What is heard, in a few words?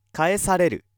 male